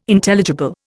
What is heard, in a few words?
"Intelligible", Indian